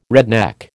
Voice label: "redneck", American

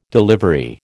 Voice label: "delivery", American

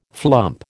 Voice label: "flump", American

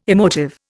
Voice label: "emotive", Indian

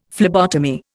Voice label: "phlebotomy", Indian; Dictionary

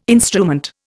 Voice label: "instrument", Indian

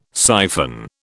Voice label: "siphon", British

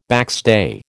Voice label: "backstay", American

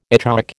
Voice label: "echoic", American

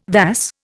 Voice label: "vas", Indian

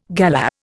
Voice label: "galah", Indian

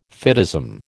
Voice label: "fideism", American

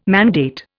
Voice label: "mandate", Indian

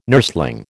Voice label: "nursling", American

